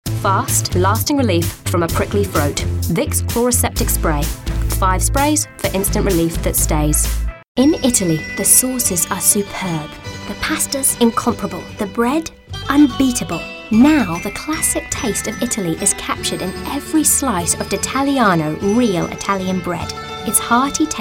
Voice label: Voice Actor; BigMouthVoices

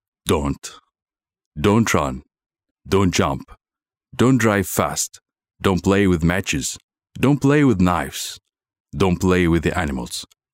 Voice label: Promo, English; Don't Stop Wondering

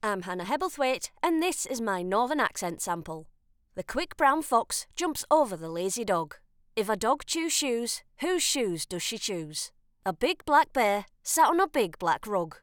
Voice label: UK Northern Accent

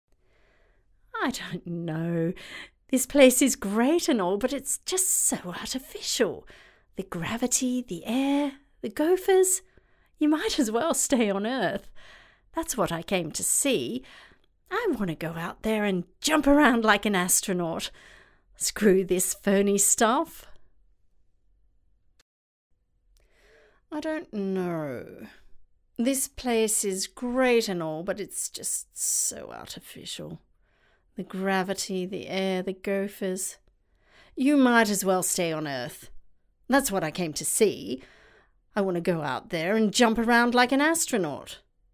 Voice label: English female

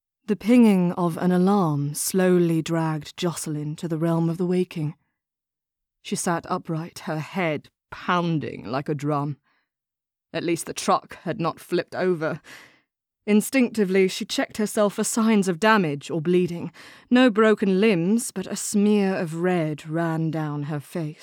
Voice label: Audiobook

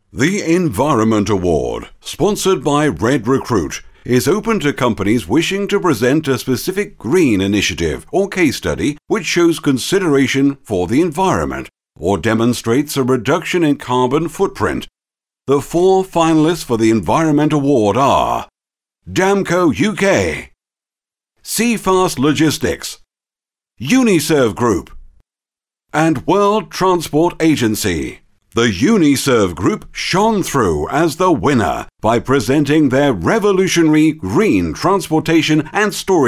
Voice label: VOICE OF GOD VOICEOVER

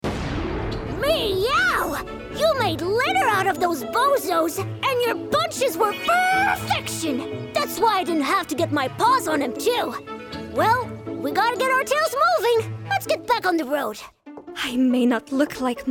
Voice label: Character

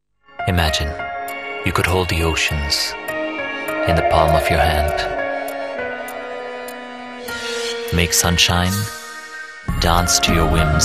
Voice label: Ad film; Titan Xylys

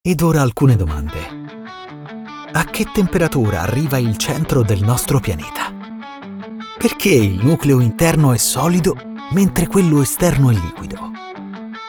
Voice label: Educational Science Docuseries; en-US

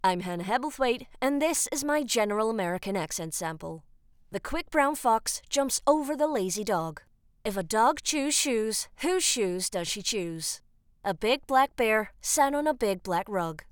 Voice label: US General American Accent

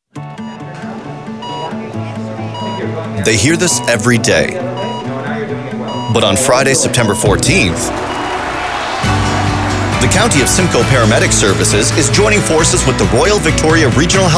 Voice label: PSA; Paramedic; Big FM; Paramedic Push

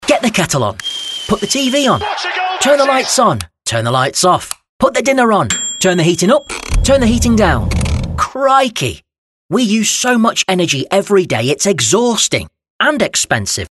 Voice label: Commercial; kettle

